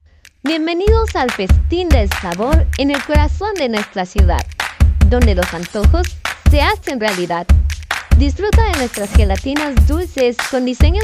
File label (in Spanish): Spot comercial